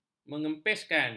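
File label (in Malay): "mengempiskan", Malay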